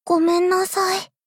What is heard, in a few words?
sad sorry